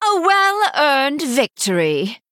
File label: Female High Elf Emote Combat Win; Bacon Shop Bob Skin